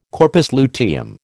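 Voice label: "corpus luteum", American English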